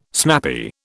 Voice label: "snappy", British